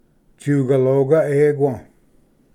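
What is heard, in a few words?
"tsugaloga equa", Cherokee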